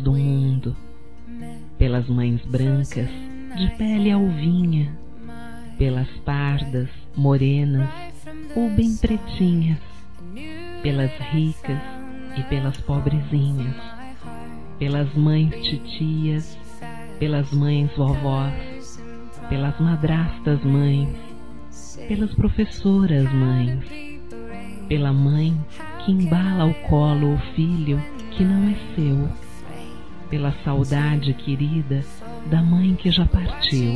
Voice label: Obrigado Senhor F, Telemensagens; Telemensagens 2017

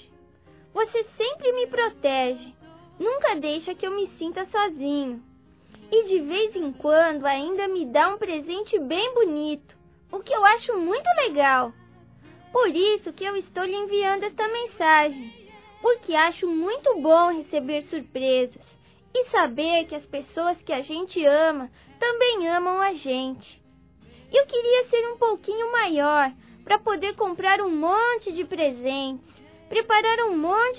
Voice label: ANIV. MÃE, Infantil masc; Telemensagens 2017

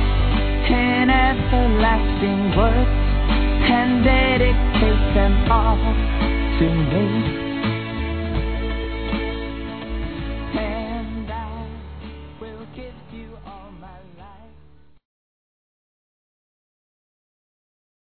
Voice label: ANIV. PADRINHO-F, Telemensagens; Aniversário Infantil

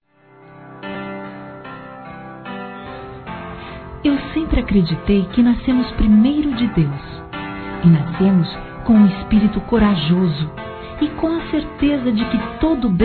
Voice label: Oração no Aniversário Feminino; Aniversários; Aniversários diversos

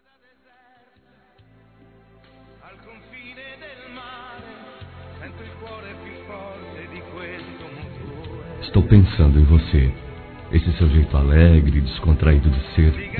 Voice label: Quem Fica Masculino; Telemensagens; Despedida Boas Vindas; Telemensagens 2017

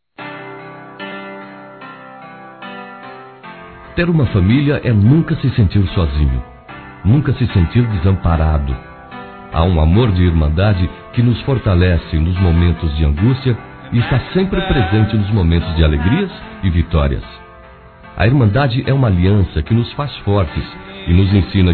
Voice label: Irmão (ã) Masculino, Telemensagens Aniversários

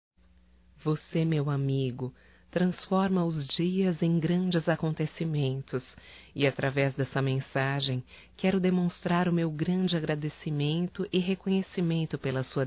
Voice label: EVAN PASTOR (F); Telemensagens 2017

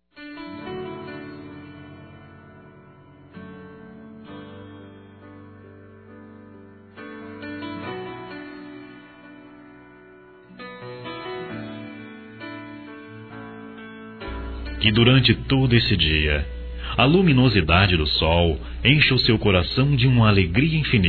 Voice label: Aniversário Primo Nós Masculino

